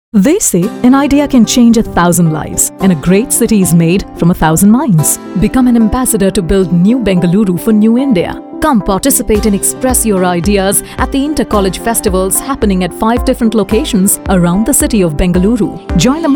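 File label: narration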